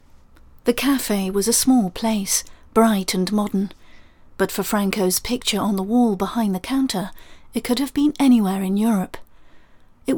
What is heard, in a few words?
Audiobook, 30s